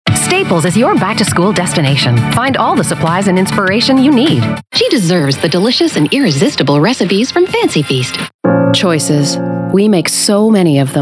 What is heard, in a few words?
COMMERCIAL